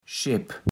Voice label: "Schepp", kaevels platt

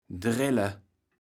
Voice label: "drelle", kaevels platt

